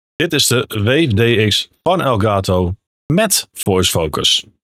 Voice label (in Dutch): Wave DX Met Voice Focus